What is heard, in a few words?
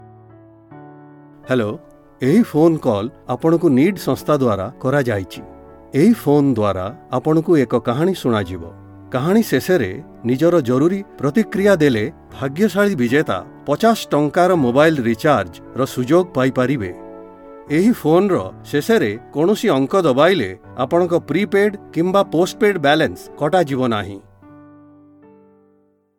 message oriya, Oriya male